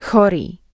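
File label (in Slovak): "chorý", Slovak; Logopedicka Platforma; zvuky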